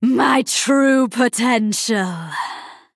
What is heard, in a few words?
Female Night Elf Metamorphosis